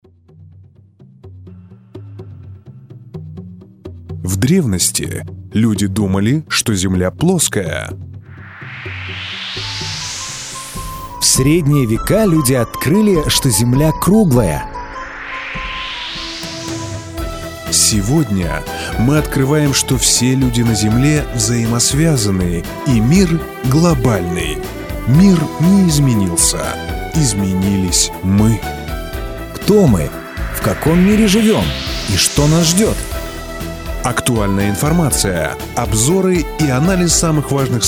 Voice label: radio promo; hroniki-globalnogo-mira